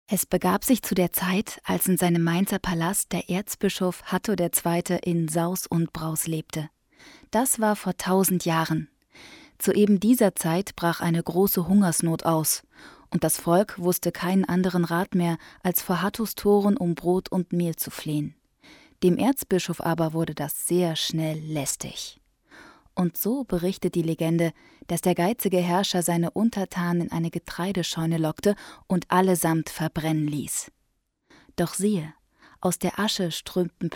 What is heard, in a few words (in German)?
Hoerbuch; Itours